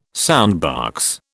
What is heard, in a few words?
"soundbox", British